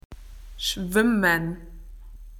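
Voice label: "swimming", Australian English; PictureDictionary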